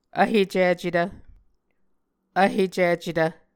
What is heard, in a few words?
"vhecicetv", Muscogee